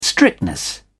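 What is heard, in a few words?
"strictness", English, British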